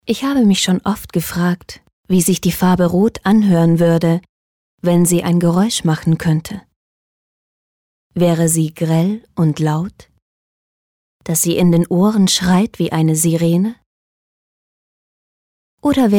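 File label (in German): Schauspiel Sanft; Farbe Rot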